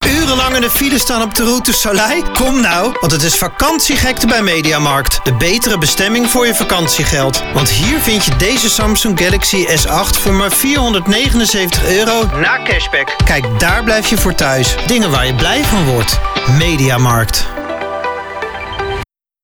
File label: Commercial - hard sell